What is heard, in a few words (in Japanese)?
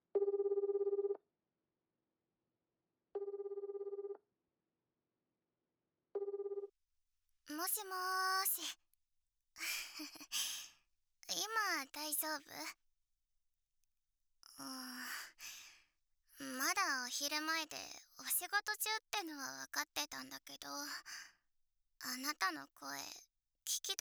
導入 電話風加工あり